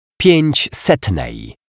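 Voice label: "piecsetnej", Polish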